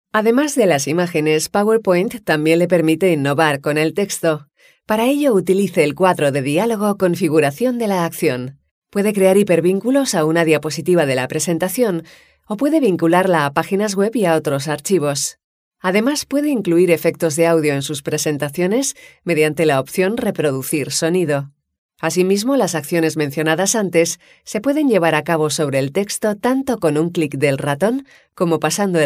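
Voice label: Spanish informative, female; spansk-europa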